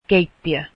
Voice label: finnish kitchen

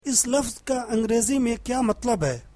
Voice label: urdu What does that word mean in English

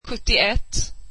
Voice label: swedish seventy one

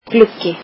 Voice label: "window", Icelandic

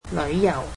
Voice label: "tourism", Cantonese

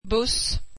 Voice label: swedish bus

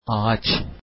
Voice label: tree, turkish

